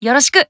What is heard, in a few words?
Emo Greet